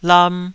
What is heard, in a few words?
"lam2", Taiwanese syllable